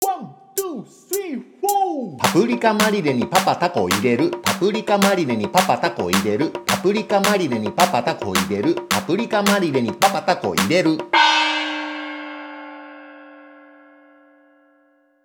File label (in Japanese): 早口言葉リズム（中級編）